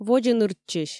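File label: "водзӧ нырччысь", Komi, female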